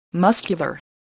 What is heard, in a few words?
"muscular", voice